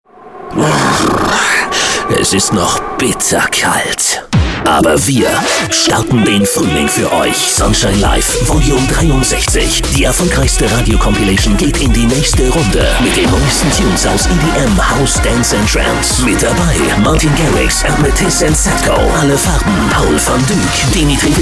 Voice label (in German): Funktrailer; sunshine live